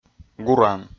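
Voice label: "гуран", Komi, male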